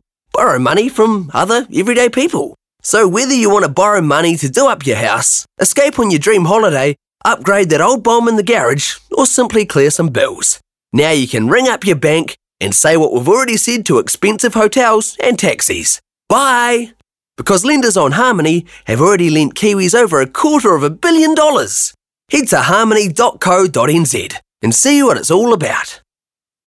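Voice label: New Zealand phone voice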